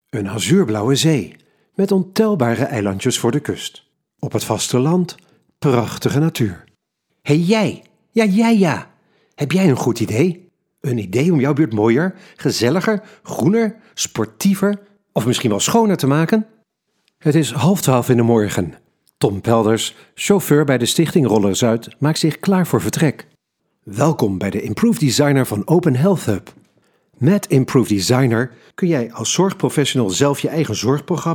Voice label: Dutch, male voice artist